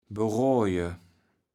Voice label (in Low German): "beroaje", kaevels platt